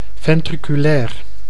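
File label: "ventriculair", Dutch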